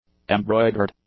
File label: "embroidered", English; adjectives